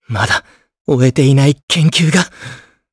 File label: Vox Dead, jp; voices; heroes; Evan; kingsraid